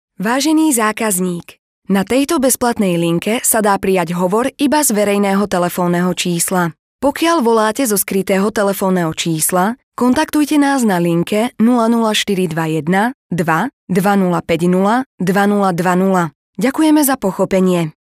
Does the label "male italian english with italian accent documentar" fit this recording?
No